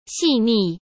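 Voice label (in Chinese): "细腻", Mandarin; chinese-flashcards; hsk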